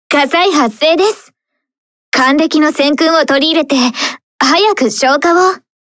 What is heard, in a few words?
Speech Alarms Fire Alarm; Shokaku; Azur Lane